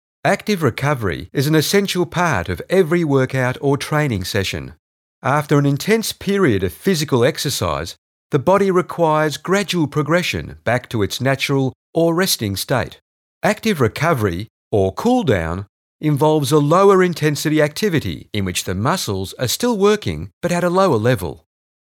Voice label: elearning